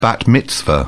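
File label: "bat mitzvah", British English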